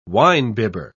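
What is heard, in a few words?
"winebibber", British English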